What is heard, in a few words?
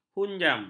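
"hunjam", Malay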